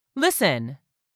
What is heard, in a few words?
"listen", English; 3000 words